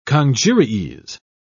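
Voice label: "congeries", British English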